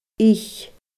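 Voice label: "ith", Scottish Gaelic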